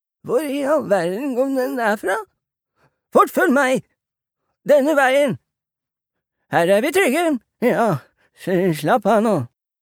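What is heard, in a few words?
dub, Norwegian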